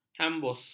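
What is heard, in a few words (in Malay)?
"hambus", Malay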